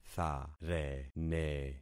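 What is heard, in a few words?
"tharene", concatenated syllables; Affixing experiment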